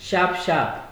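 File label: "sharp sharp", Nigerian Pidgin, male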